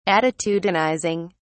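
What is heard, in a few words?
"attitudinizing", English, British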